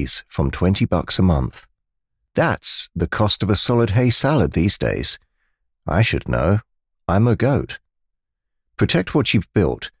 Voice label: british voice over insurance ad